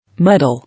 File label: "Muddle", English